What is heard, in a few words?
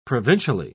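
"provincially", British English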